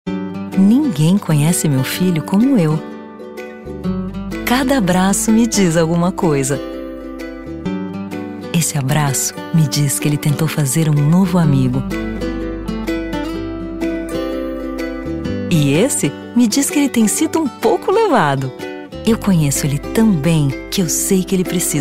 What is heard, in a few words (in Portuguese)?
Port Publicidade; Demo; trilingual voice-over artist (Portuguese, English and Spanish)